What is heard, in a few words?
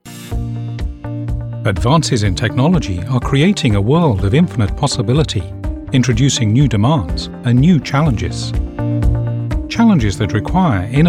Corp Explainer, voiceovers